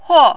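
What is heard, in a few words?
"huo", fourth tone, Mandarin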